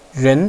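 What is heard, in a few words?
"ren", Mandarin Chinese, second tone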